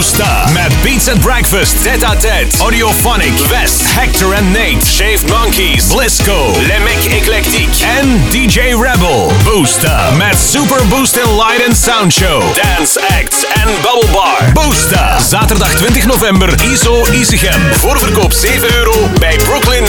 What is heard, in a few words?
party commercial; boosta iso